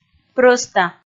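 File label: "прӧста", Komi, female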